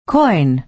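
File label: "coin", American English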